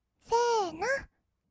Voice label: "せーの", kawaii voice; ASMR; 1200 kawaii voice!!; 音ゲー

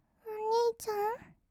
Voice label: "おにいちゃん？", kawaii voice; ASMR; 二人称; 1200 kawaii voice!!

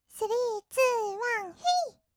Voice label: 321 hey, kawaii voice, カウント; ASMR; 1200 kawaii voice!!

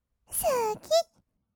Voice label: すき, kawaii voice; ASMR; 色々なワンショット

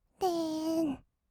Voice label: "でーん", kawaii voice; ASMR; 色々なワンショット

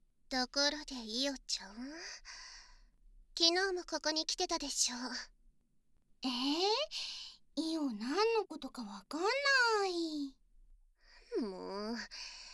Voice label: 囁きブースト 効果音大きめ; 姉妹の３P強制射精管理

